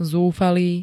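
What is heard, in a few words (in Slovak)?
"zúfalý", Slovak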